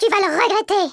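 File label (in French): "regret", French; worms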